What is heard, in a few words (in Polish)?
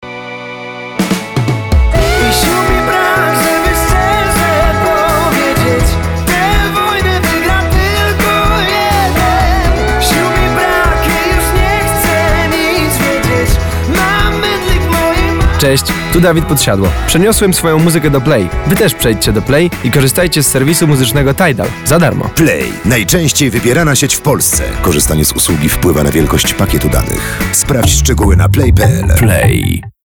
RADIO pop mix